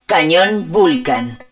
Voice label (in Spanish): vulcan cannon, Spanish